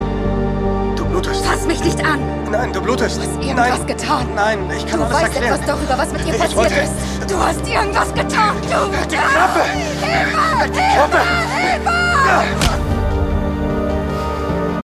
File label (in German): Szene bedrohlich Panik, Synchron, Lip-Sync, German